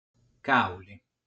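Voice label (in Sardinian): "Càuli", Sardinian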